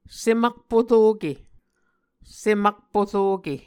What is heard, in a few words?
"sem akporoke", Muscogee